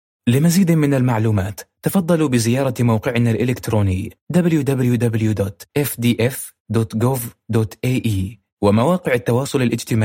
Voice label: ivr

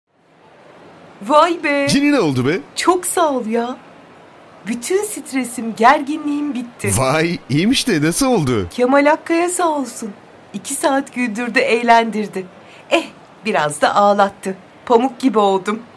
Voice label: dialog

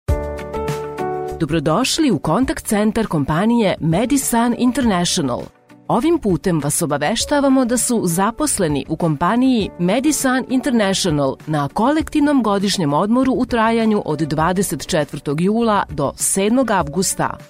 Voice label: ivr; medisan international

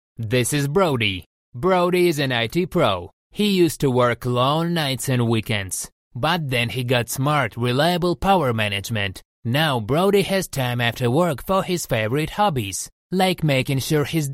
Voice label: commercial in english; eaton

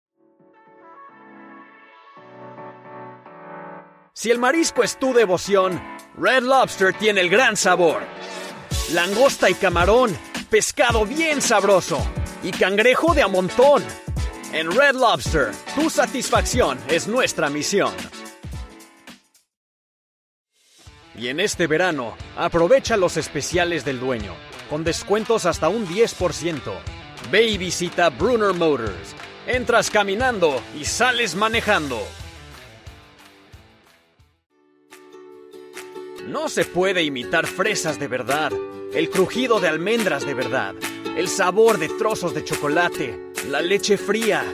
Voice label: commercial spanish

